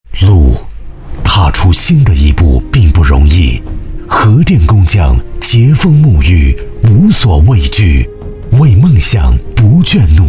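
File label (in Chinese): 男S347 国语 男声 专题片-党建项目解说-恢宏大气 大气浑厚磁性 沉稳; 党员在行动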